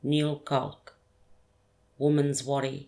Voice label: "nyil-kalk" womens-waddy